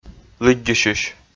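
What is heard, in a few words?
"лыддьысьысь", Komi, male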